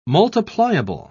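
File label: "multipliable", English, British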